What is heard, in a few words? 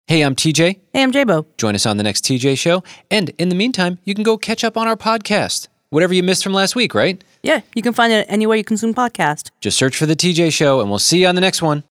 SUN PROMO